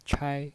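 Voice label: "chai", Mandarin Chinese, first tone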